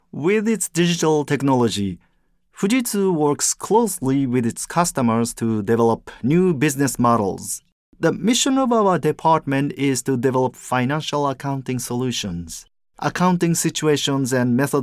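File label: Japanese, male, accented English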